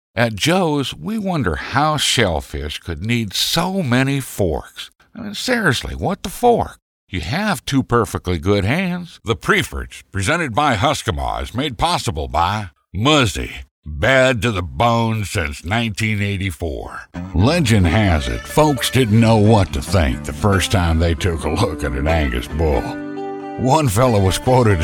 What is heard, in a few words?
Southern